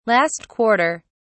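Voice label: "last quarter", British English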